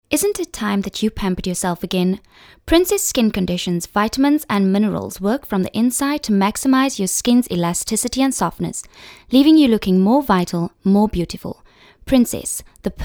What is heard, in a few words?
soft sell